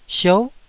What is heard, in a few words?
"siou9", Taiwanese syllable